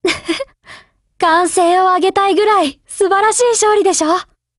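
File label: WIN, Japanese; GF Alarm; Colt SCW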